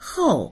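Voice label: "hou4", Mandarin Chinese; 厚; 厚い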